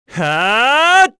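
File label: Vox Casting, korean; Esker; kingsraid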